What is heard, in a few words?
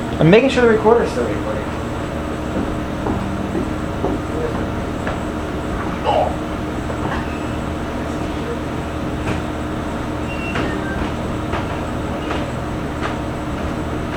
walkway voice